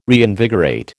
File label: "reinvigorate", American